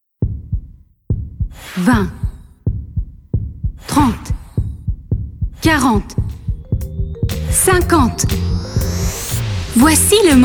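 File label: spot French; esprit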